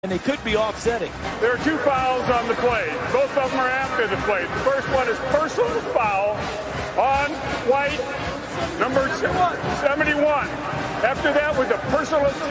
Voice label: English football reporter